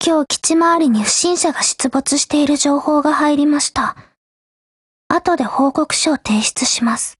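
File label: operation over, Japanese; HK33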